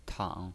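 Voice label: "tang", Mandarin Chinese pinyin, third tone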